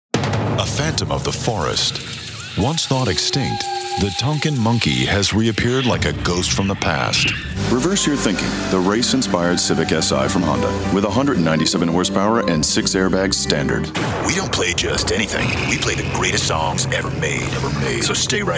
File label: English USA